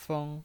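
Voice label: "feng", Mandarin Chinese, first tone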